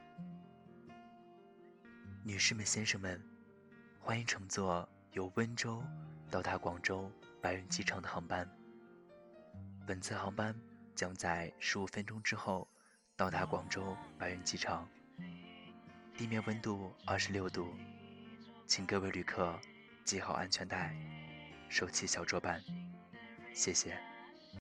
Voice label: 机场广播; 机场广播版

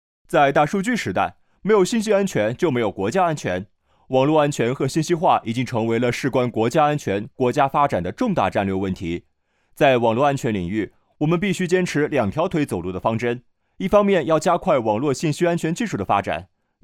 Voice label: 网络安全宣传

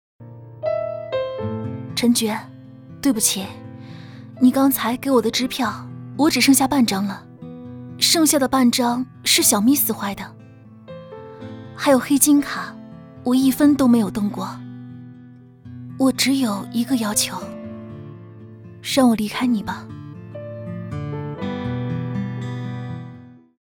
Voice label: 伤感角色温暖配音

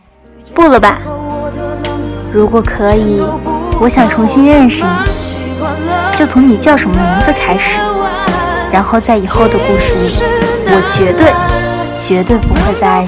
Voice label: 情绪配音

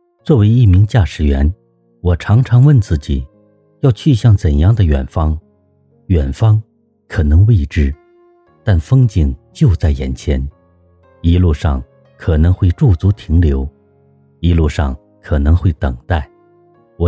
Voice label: 男声温暖叙述感

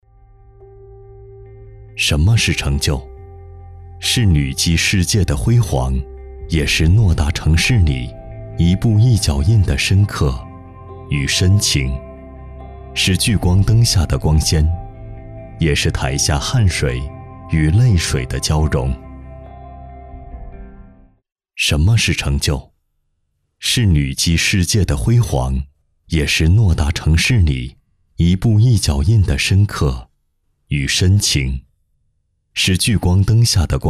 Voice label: 宣传片; 翰林府

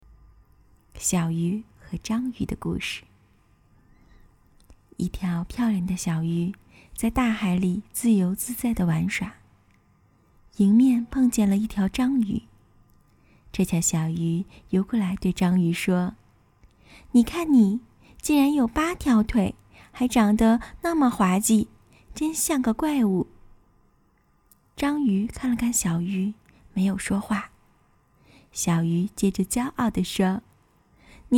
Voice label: 试音 睡前故事

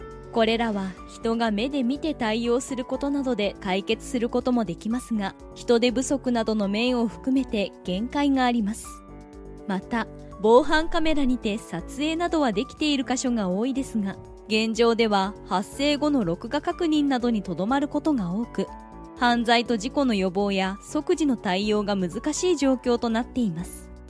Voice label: 日语 女声

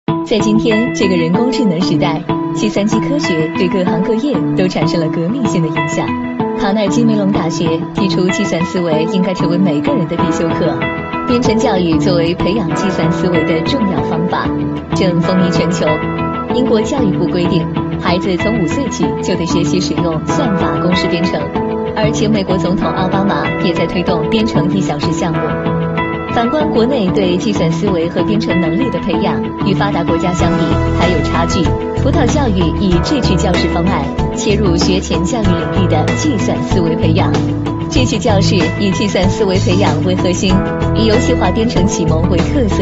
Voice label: 专题 科技感 发布会; 葡萄教育

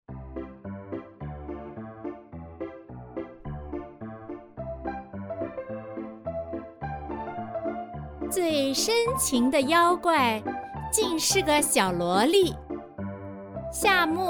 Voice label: 小萝莉

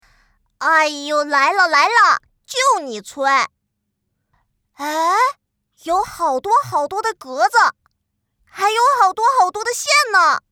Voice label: 岁男童; 盛龙貔貅

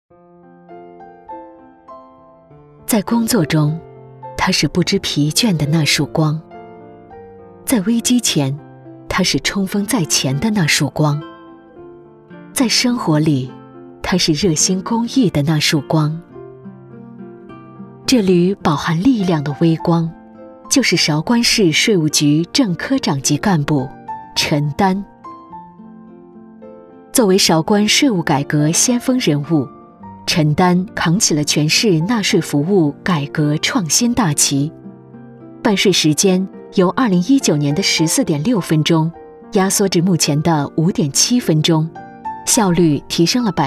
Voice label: 专题人物事迹稳重亲切

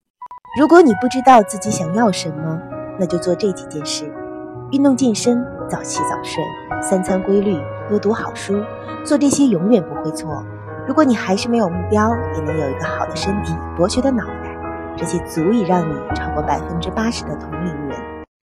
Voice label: 知性

